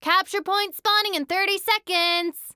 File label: Announcer Match Point Spawn; Evie